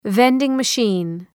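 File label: "vending machine", English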